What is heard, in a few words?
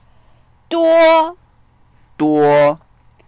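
"duo1", Mandarin Chinese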